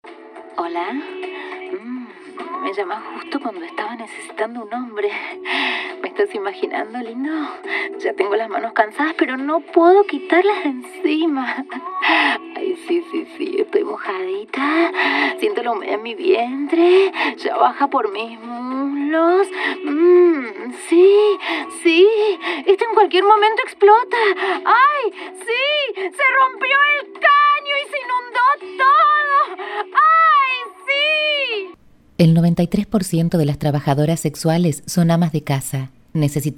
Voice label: hablados, acting

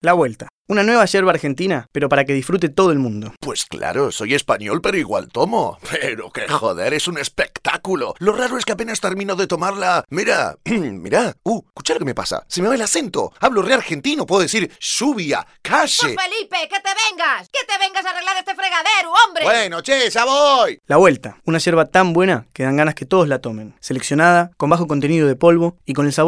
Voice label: hablados, acting

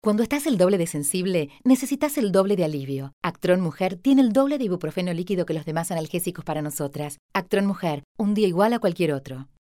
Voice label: mujer; hablados; locucion

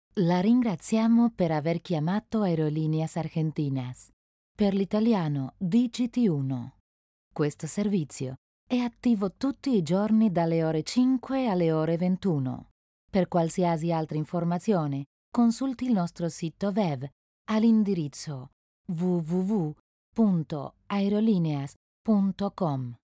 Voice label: hablados, italiano; neutro; aerolineas argentinas

